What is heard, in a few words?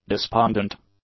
"despondent", english; adjectives